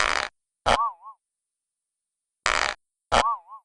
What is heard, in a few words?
sceptical